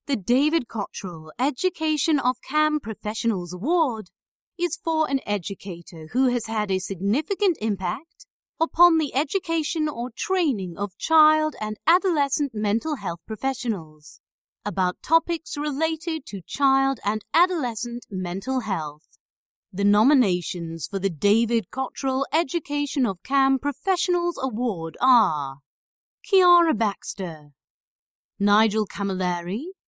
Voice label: Announcer Sample

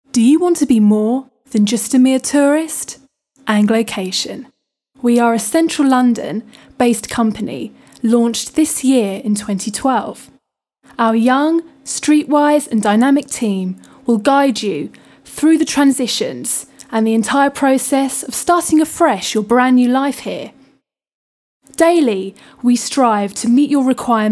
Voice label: Voiceover

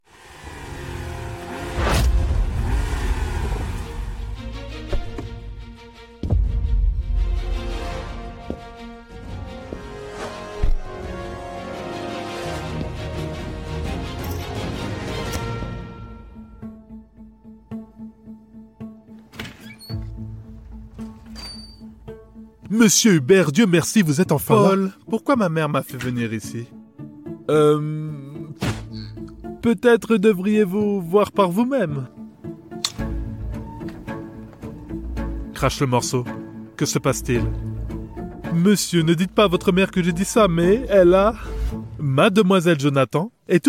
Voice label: Dubbing serie, male voice actor; Character / Cartoon

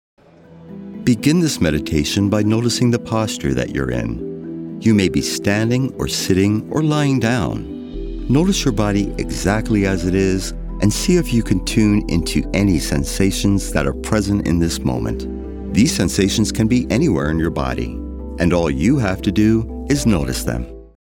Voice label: Meditation

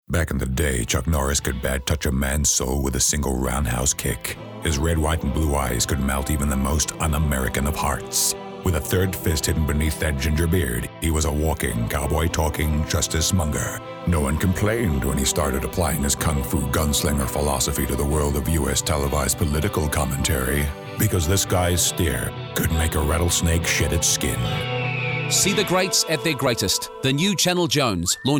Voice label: Accents

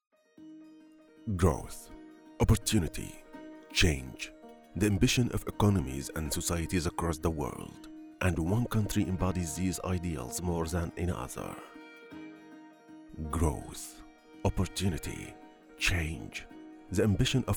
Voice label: Event Launch English Arabic Accent; Event Launch Film

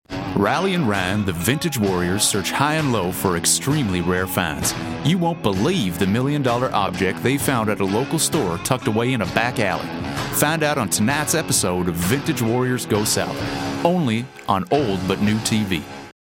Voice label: Television Spot

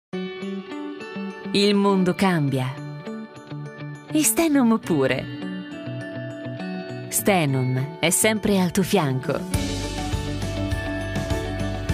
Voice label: Corporate-video; Stanhome

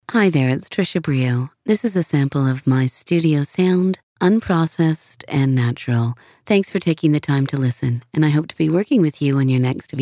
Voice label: studio sound quality

